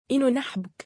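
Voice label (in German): Arabisch, ich liebe dich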